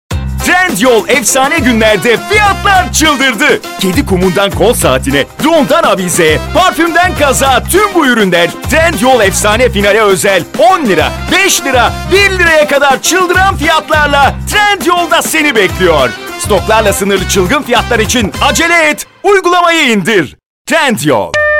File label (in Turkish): reklam; trendyol